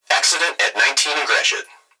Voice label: Emergency Human Young Male Voice Fire Dispatch Accident At 19 And Gratiot; emergency effects